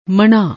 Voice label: "mannah", Punjabi; ਮਣ੍ਹਾ